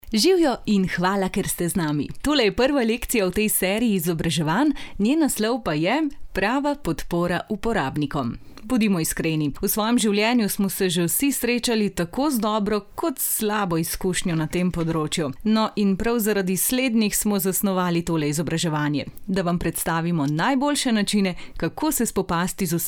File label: Slovenian Female E-learning Tutorial